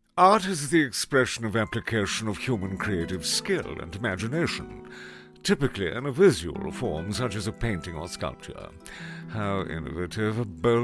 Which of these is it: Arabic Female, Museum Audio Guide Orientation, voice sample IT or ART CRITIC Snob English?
ART CRITIC Snob English